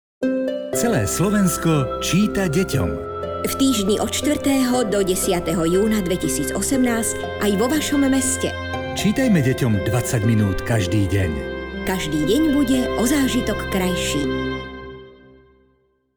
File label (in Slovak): spot